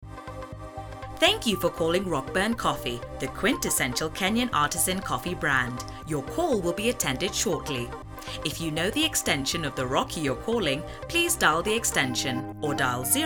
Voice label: Voice over; Rockbern